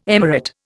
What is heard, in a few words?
"emirate", Indian